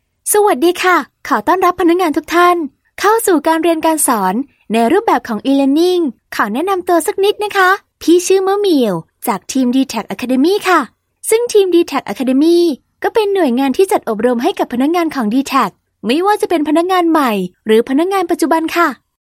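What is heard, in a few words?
Thai Corporate Training Female; DTAC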